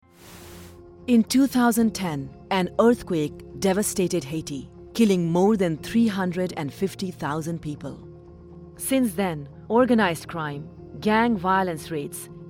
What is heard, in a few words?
Narration; IRC Mexico